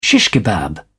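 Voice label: "shish kebab", British English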